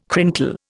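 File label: "crinkle", Indian English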